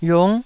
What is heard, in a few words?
"yiung9", taiwanese Syllables